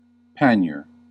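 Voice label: "pannier", American English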